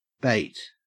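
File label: "bate", Australian English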